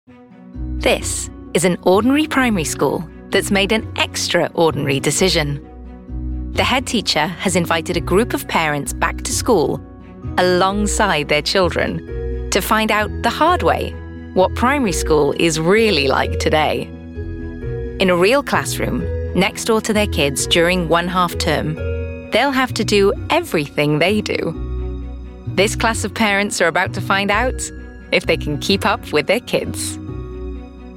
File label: Documentary